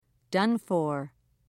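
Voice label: "done for", British English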